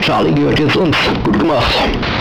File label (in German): funkspruch